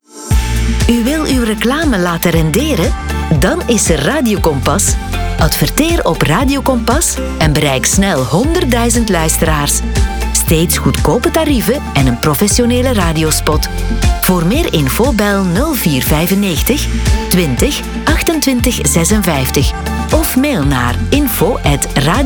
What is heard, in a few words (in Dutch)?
kompas reclame